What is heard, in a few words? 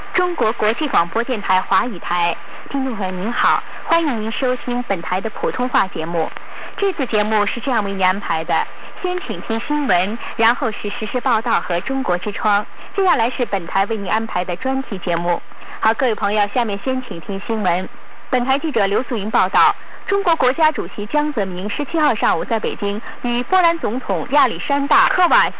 cri mandarin; Chinese